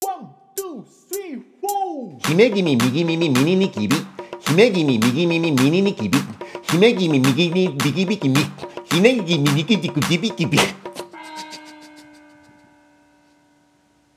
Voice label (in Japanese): 早口言葉リズム（中級編）